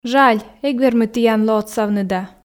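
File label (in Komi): жаль эг вермы тіянлы отсавны да, Komi, female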